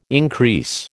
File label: "increase", American